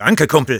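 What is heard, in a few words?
thanks for the heal, German; Sniper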